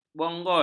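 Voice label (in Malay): "bonggol", Malay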